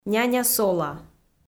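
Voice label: "няня-сола", Komi, female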